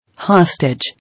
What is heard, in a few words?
"Hostage", English